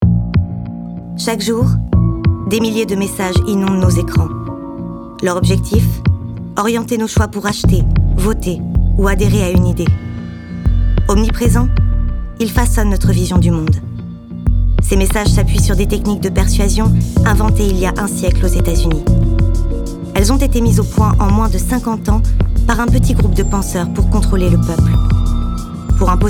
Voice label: DOCUMENTARY